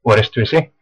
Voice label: toilet, West Flemish

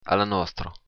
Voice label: cheers, occitan

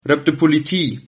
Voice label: police, Sylt Frisian

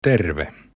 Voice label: hello, finnish

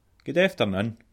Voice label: good afternoon scots

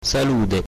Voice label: hello, corsican

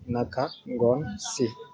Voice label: goodevening, wolof

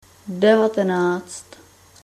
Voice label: nineteen, czech

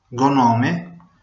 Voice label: my name is, venetian; Me ciamo ...; Gò nòme ...

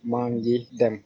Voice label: goodbye, wolof

